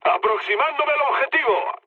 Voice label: near waypoint, mood med, speech; War Thunder

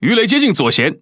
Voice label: Speech Alarms Torpedo Left, Languages ZH TW; World Of Warships

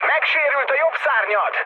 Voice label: right wing, speech hun; War Thunder

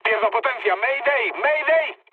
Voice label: damaged engine, mood med, speech; War Thunder

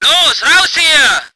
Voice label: voice ger vehicle

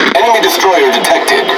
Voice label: Speech Detection Enemy Destroyer, EN; World Of Warships

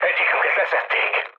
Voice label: aircraft destroyed, speech hun; War Thunder

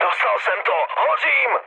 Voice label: on fire, speech cze, mood high; War Thunder

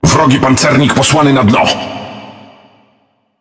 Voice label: Speech Destroy Enemy Battleship, PL; World Of Warships

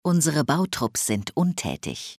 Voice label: Worker Idle, Speech German Advisors; Civ5

